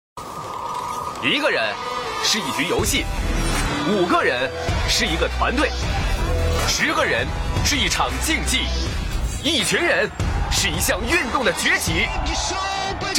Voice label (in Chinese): 男国 汽车, 汽车tvc广告; 奔驰; guanggaopeiyin